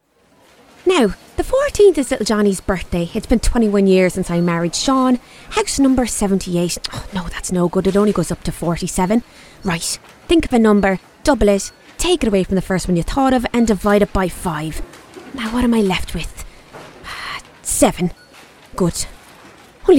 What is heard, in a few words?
Commercial